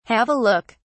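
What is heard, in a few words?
"have a look", English, uk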